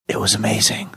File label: whispering; it was amazing